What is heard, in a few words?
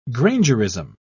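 "grangerism", English, British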